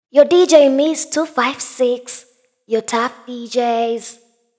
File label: female voice